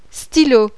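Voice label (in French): "STYLO", French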